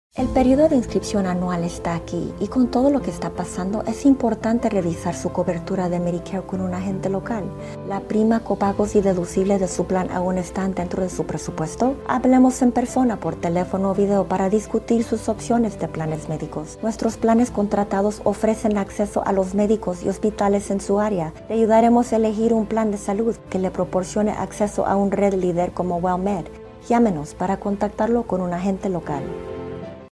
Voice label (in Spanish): Spanish Version; GOLDEN PLAN CHOICE